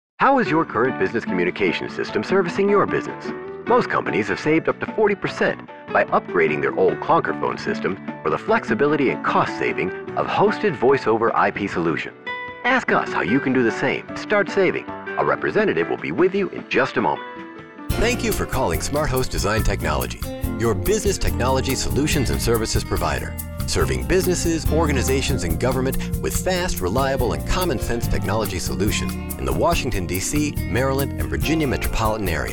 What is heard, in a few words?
On Hold